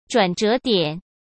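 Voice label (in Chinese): "转折点", Mandarin Chinese; hsk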